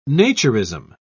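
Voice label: "naturism", British English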